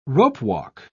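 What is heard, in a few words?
"ropewalk", British English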